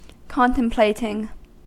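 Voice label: "contemplating", American English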